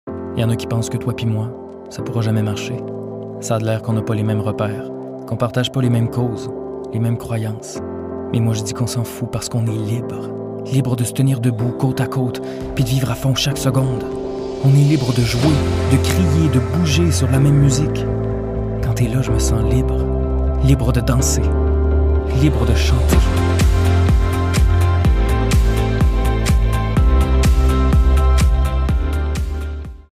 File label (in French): Publicite